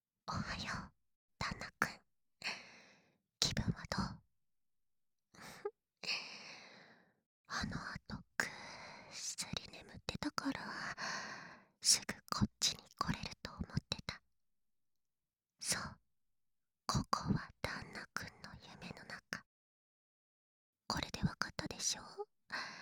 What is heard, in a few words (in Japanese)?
【ぬるぬるSE】手でゆっくり囁き射精誘導（効果音あり）; ぬるぬるSE差分